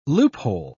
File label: "loophole", pronounce